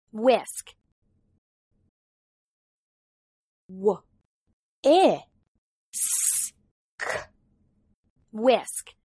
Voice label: "whisk", English

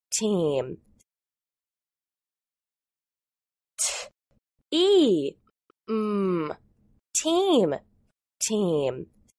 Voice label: "team", English

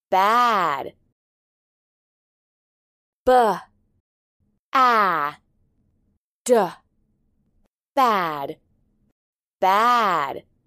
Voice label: "bad", English

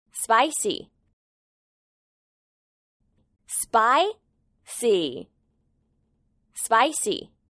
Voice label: "spell spicy", English

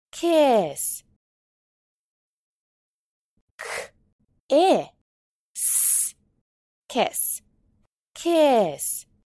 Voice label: "kiss", English

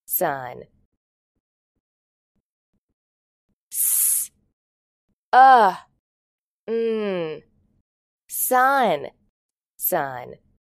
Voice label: "sun", English

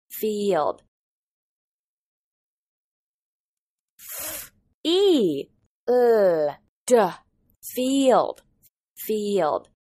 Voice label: "field", English

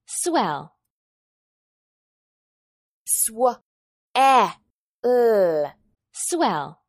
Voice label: "swell", English

